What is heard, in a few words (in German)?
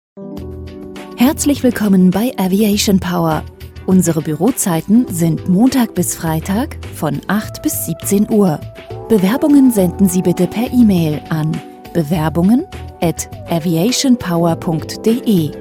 anrufbeantworter ansage; Anrufbeantworter besprechen